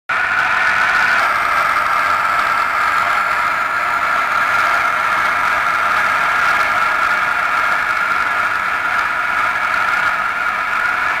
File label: Training 4760 KHz; E06; Rimini